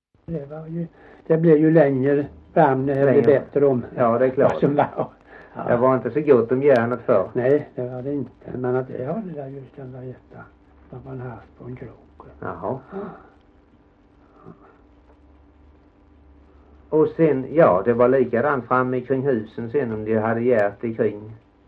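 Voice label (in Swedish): Gällared Halland, dialekter; Folkminnen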